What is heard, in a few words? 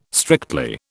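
"strictly", British English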